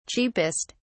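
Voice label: "cheapest", British English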